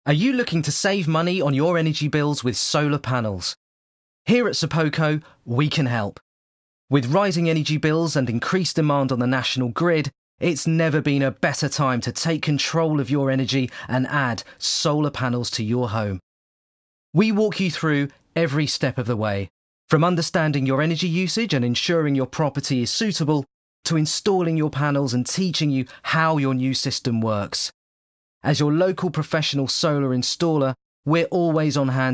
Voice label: RP Corporate

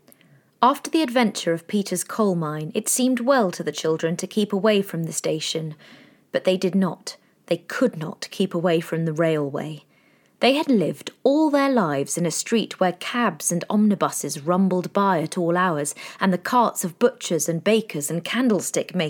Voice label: Audiobook

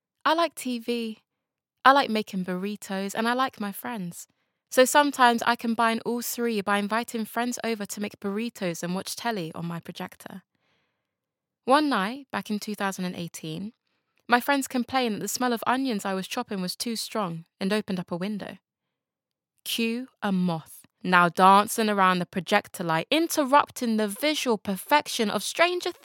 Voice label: Narrative

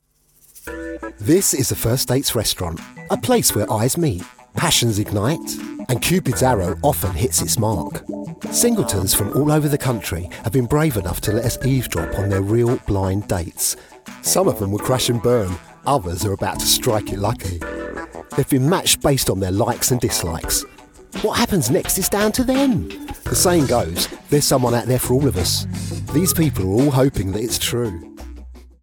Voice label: Narration

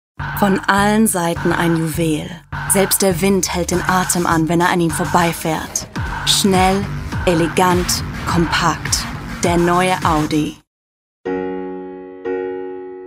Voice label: German

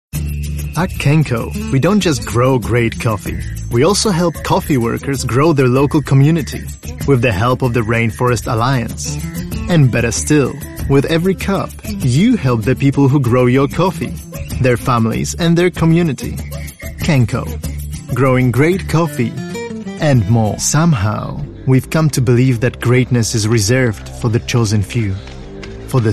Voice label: English, Eastern European, Polish, Male, 20s-40s

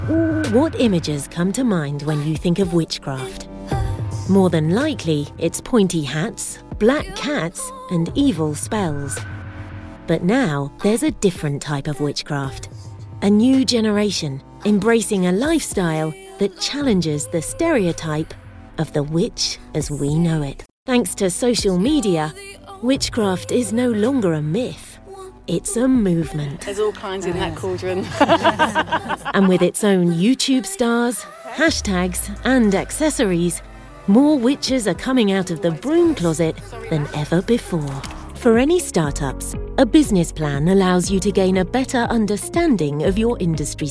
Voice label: Narration

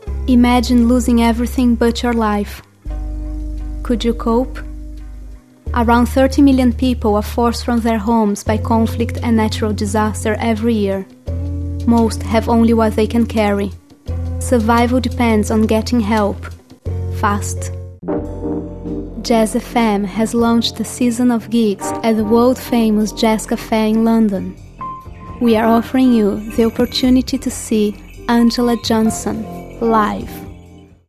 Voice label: English, Portuguese-Brazilian, Female, Teens-20s

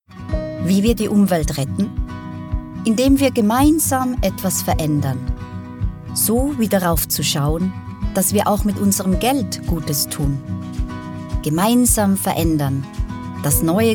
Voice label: DE

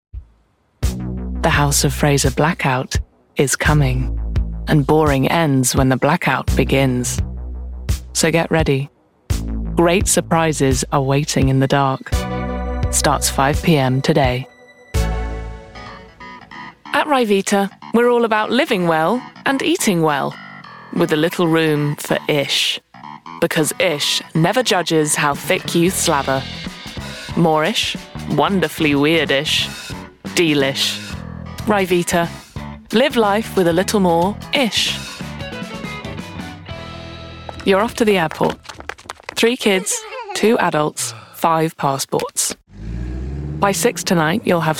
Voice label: Commercial

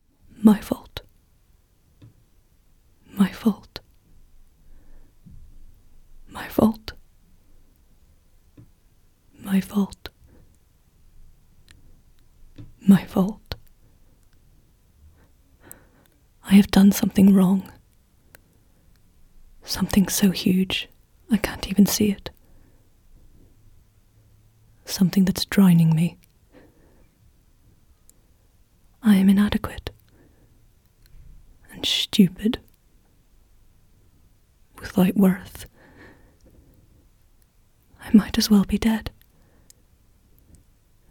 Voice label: Drama

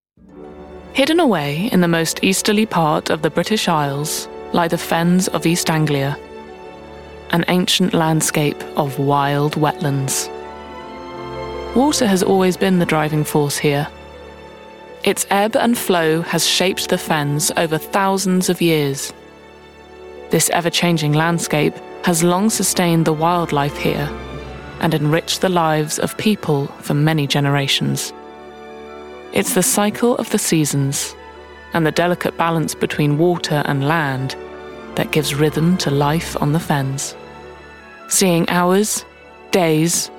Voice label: Doco

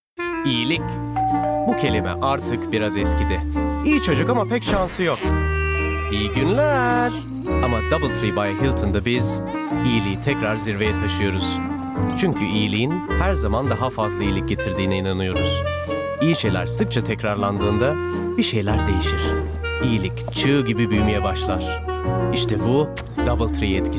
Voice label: Turkish

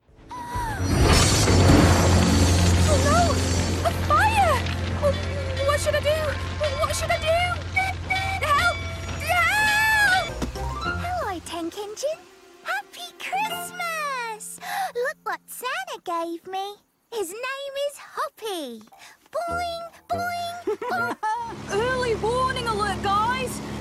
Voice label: Animation

